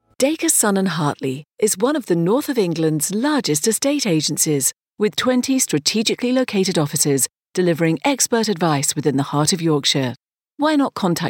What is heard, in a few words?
on-hold